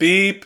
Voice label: Voices urgent; The Looker